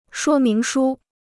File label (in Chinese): "说明书", Chinese